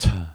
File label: t voiced, phonemes